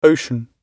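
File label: "ocean", British English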